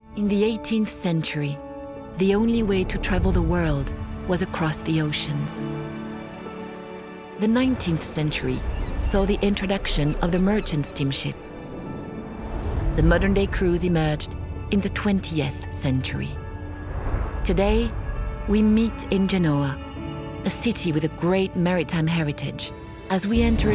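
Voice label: French, female, english accented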